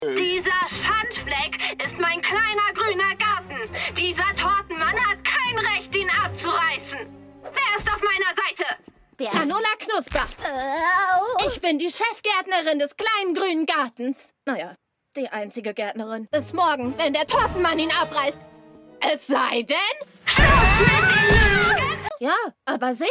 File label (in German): kindlich rebellisch; Emily Erdbeer